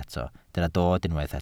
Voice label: "dod", Welsh

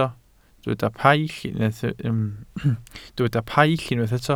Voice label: "paill", Welsh

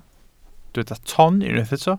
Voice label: "ton", Welsh

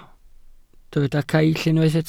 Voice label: "caill", Welsh

